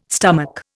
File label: "stomach", Indian